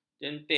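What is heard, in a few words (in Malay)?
"jentik", Malay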